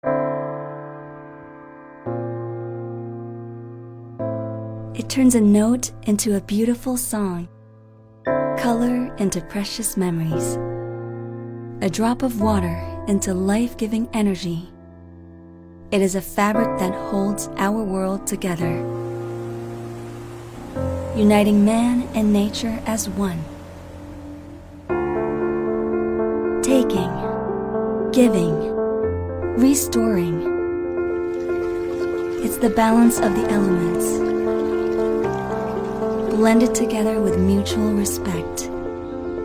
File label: US English Skincare, Female; MTM